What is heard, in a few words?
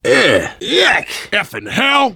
reaction boomer vomit; Left 4 Dead 2; left4dead2 dlc3; survivor